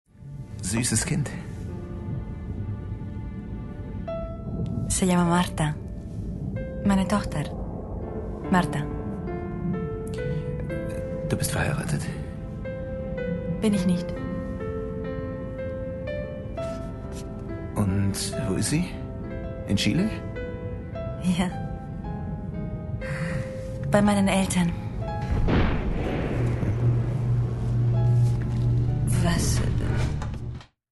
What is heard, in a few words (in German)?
dt. Spielfilm mit span. Akzent